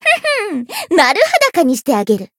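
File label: encounter, Japanese; alma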